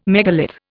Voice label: "megalith", Indian